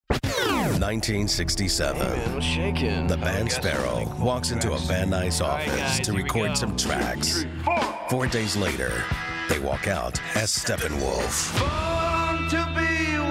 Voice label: radio imaging male classic rock